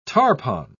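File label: "tarpon", British English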